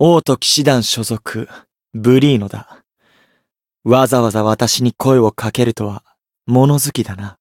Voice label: Voice story jp

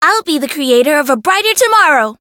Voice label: Voice chant

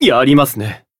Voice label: damaged, jp; shion

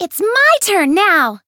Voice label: chant

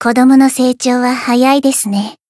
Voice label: voice jp

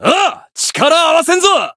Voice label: limitbreak, jp

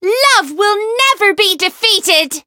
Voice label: variable chant; shayne

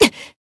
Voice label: damaged, jp; hiina

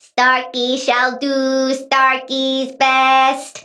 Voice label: variable chant

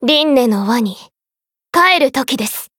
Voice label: limit break, jp; maturika

